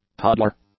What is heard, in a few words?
"toddler", English; nouns